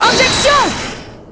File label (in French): "objection", French